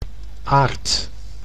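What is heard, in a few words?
"aard", Dutch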